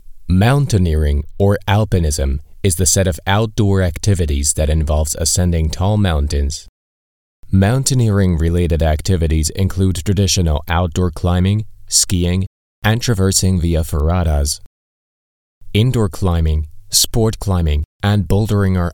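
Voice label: ENUS Educational, American English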